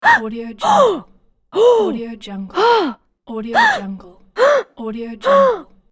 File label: female voice surprised